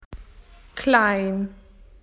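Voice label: "small", Australian English; PictureDictionary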